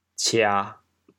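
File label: "cia1", Teochew, chaoyang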